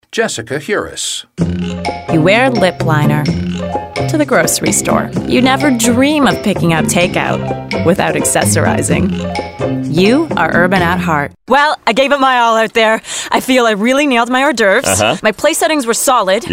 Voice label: commercial female